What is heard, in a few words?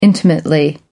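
"intimately", British English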